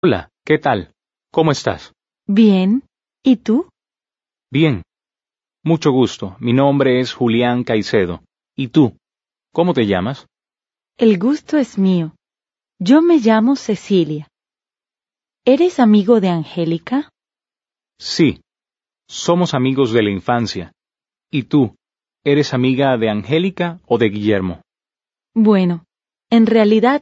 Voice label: Dialogue, Spanish